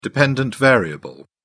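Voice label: "dependent variable", British English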